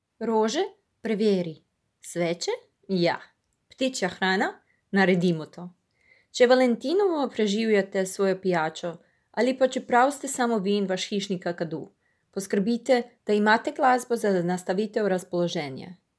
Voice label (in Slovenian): VO slovenski